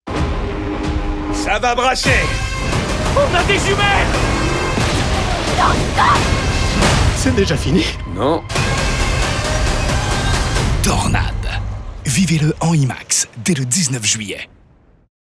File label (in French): Tornades, French voiceover; Imax; Voix hors-champs